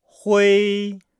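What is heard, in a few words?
"hui", Chinese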